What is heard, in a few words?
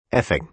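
"effing", American English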